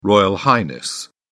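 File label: "royal highness", British English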